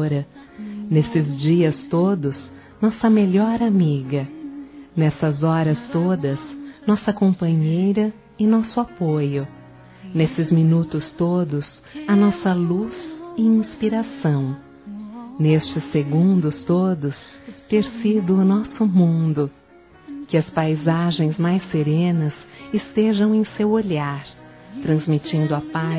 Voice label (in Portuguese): Aniversário Para Mãe Filhos Enviando Feminino, Telemensagens; Aniversários; Aniv Familiar